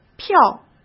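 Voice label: "票", Mandarin Chinese; chinese flashcards; hsk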